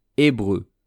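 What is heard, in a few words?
"hebreux", French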